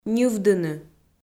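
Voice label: "ньывдыны", Komi, female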